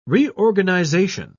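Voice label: "reorganisation", English, British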